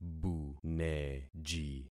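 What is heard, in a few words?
"buneji", concatenated syllables; Affixing experiment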